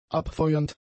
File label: "abfeuernd", German; adjectives